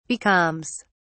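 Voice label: "becalms", British English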